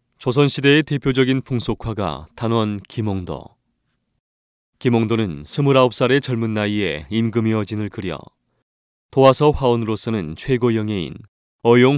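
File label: Korean, male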